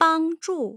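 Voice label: "bangzhu", Mandarin Chinese, tone pairs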